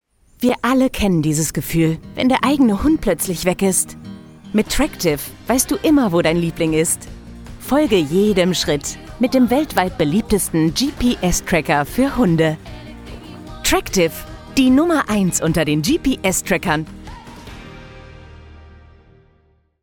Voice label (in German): TV Spot; Tractive